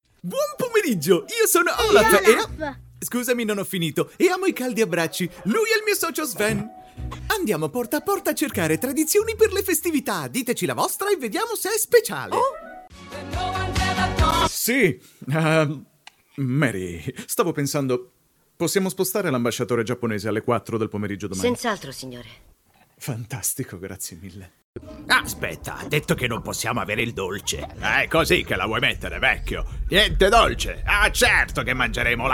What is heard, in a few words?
Italian voiceover animatic